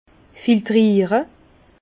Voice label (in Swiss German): "filtriere", Bas Rhin; vin; filtrer